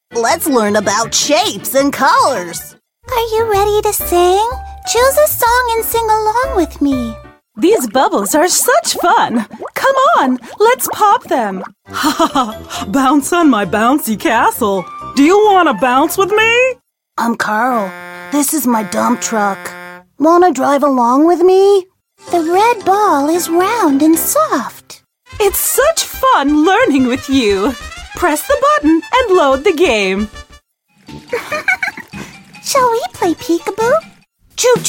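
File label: American, toys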